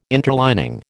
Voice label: "interlining", American